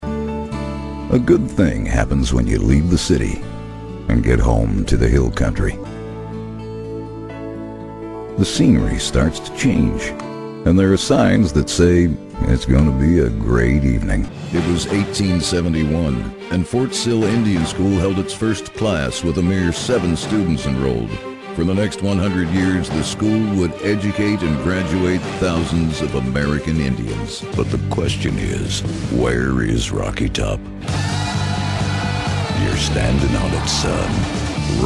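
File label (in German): US English Werbung Kraftvoll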